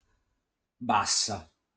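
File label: "Bassa", Sardinian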